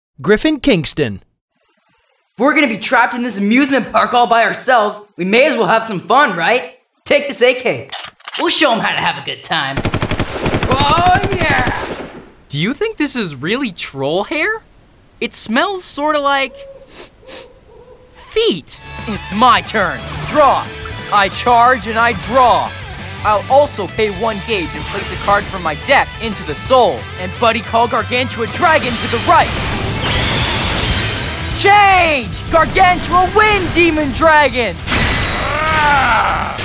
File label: kids animation